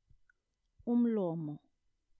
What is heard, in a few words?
"Umlomo", ndebele